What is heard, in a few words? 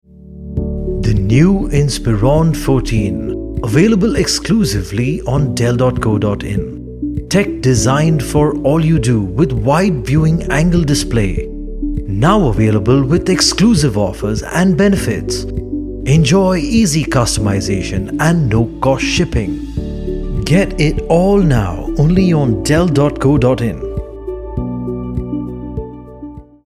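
promo English; Dell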